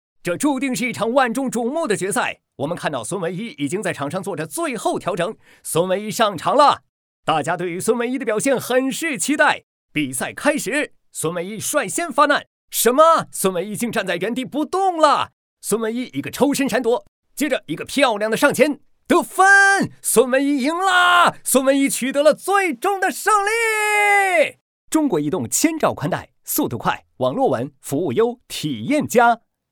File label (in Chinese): 男 比赛解说; 中国移动